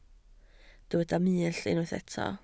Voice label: "mull", Welsh